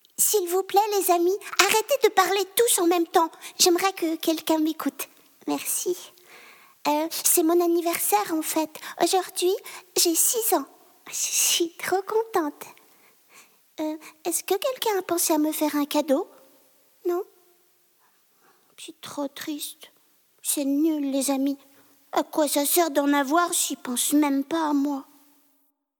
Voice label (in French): voix petite fille